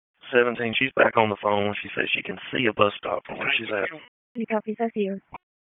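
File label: EMS Dispatch; wake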